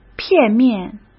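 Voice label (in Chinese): "片面", Mandarin Chinese; hsk; chinese-flashcards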